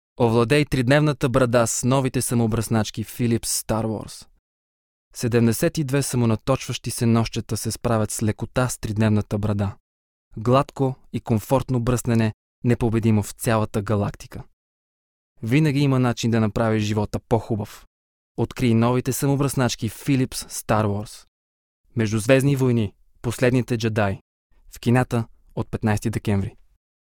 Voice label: bulgarian m commercial, voice; voice demos

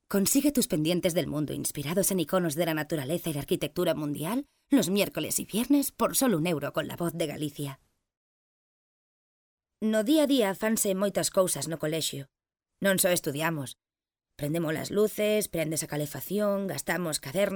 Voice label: spanish f narration; voice demos

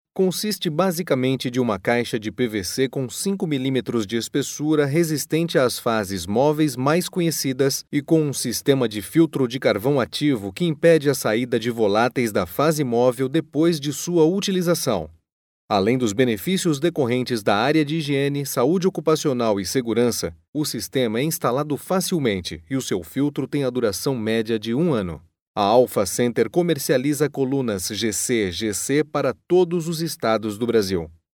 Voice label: brazilian m learning, portuguese bra voice; voice demos

